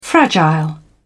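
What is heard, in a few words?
"fragile", English, British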